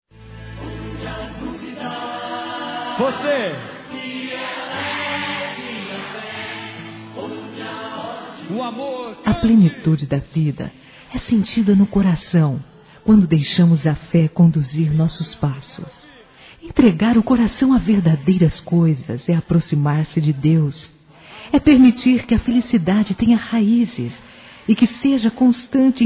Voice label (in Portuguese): Neutro Católico Feminino; Aniversários; ANIV. Evangelico